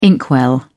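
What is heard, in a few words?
"inkwell", British English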